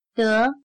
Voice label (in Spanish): "得", Chino